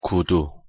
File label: "구두", Korean